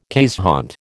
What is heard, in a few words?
"keeshond", American